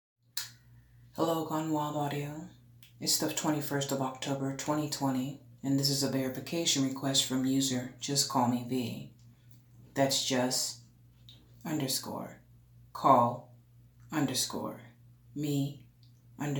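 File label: Female Verification Request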